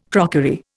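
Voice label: "crockery", Indian English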